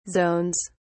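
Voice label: "zones", British English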